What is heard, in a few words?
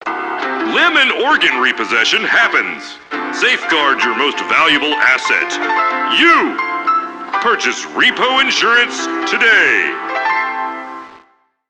PA System, en; Warframe; Solaris Venus